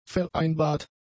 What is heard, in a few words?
"vereinbart", German; adjectives